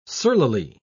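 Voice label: "surlily", British English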